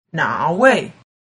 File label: "nawei", mandarin